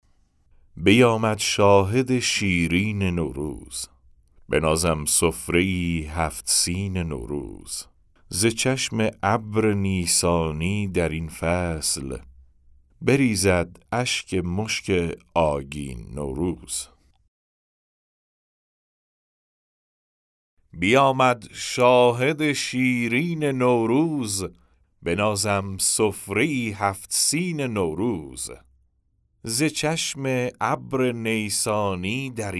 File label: Narration; nowruz